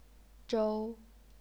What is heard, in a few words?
"zhou1", chinese pinyin